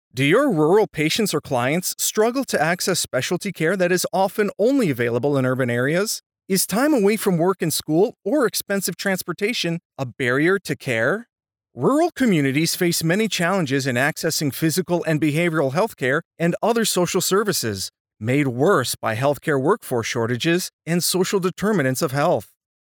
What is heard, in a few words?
animation narration